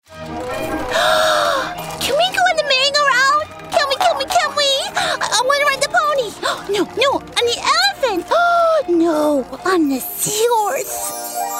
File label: ANIMATION Little Girl